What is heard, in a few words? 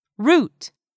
"ROOT", english; 3000 words